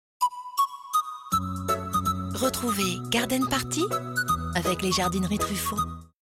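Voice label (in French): Bill tv Legere posee, voix off; Truffaut; Complice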